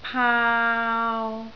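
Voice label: "paau3" Cantonese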